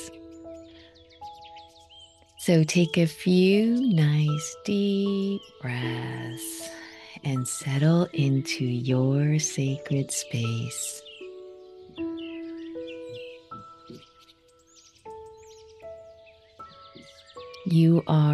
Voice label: meditation guided; mother earth healing